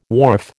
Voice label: "wharf", American